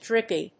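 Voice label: "drippy", English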